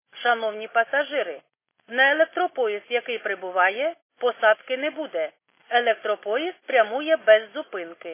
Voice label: khs st female, subway station